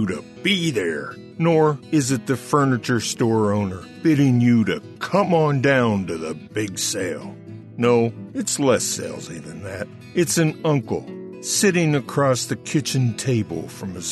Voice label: Conversational